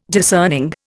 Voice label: "discerning", Indian